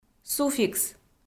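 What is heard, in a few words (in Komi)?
"суффикс", Komi, female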